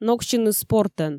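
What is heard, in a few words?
"ноксьыны спортӧн", Komi, female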